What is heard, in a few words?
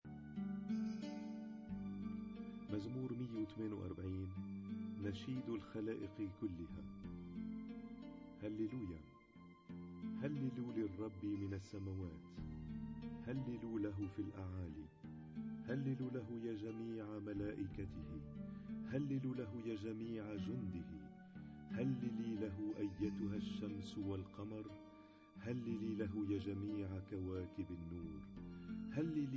Arabic Psalm Zaboor